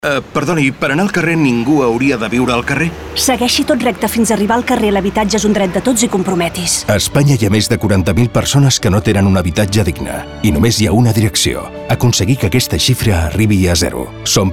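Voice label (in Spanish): Cuña de radio cat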